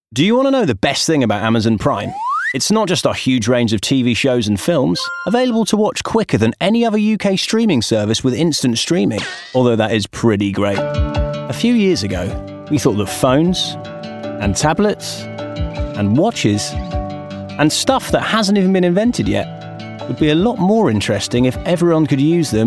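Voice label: Commercial Showreel, male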